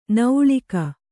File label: "ನೌಳಿಕ", Kannada